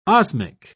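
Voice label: "osmic", British English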